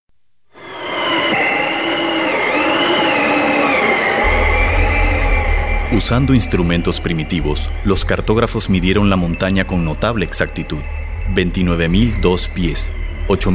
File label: narration